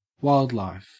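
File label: "wildlife", Australian English; pronunciations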